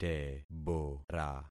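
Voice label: "tebora", concatenated syllables; Affixing experiment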